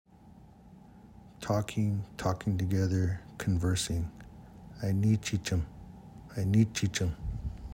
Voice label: Talking together